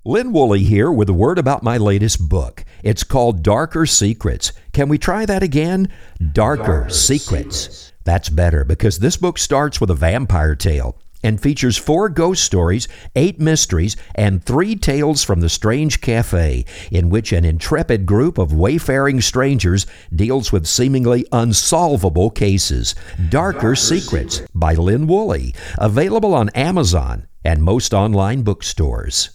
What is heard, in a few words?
radio spot